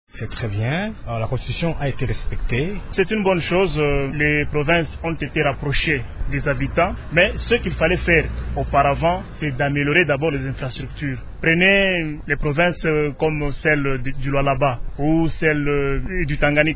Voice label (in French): Lubumbashi Vox pop demembrement, fr